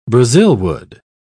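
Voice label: "brazilwood", British English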